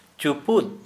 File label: Santali fist